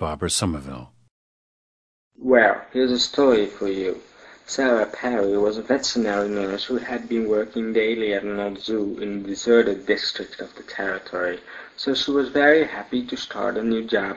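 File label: netherlands, International Dialects of English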